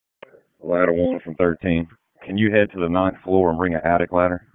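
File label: FIRE Operations, p25; wake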